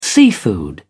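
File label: "seafood", English, British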